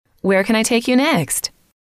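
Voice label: where Can I Take You Next, female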